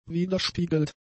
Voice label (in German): "widerspiegelt", German; adjectives